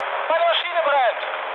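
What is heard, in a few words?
German on fire mood med, speech; War Thunder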